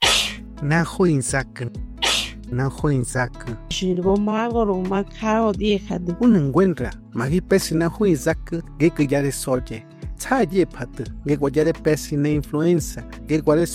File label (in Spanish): Spot Radio Mazahua; mexico; salud inclusiva